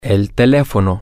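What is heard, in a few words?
the phone, Spanish, male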